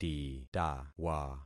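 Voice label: "titawa", concatenated syllables; Affixing experiment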